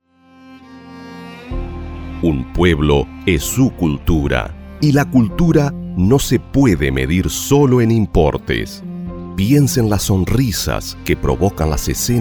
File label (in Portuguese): locutor espanhol